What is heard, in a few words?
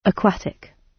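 "aquatic" British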